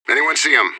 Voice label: radio bot query, vo fbi; fbihrt